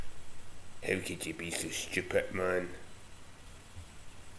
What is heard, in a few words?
"stupit", slang; Ah huvnae seen ye aboot